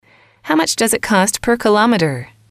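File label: "how much does it cost per kilometer", English, female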